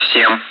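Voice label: Speech, Russian, air traffic control; Digits; DCS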